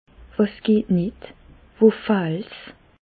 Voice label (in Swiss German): geht nitt, Bas Rhin; medecine; quest ce qui ne va pas